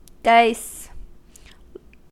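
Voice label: "dice", US English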